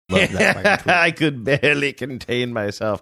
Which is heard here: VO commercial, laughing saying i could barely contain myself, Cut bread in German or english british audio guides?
laughing saying i could barely contain myself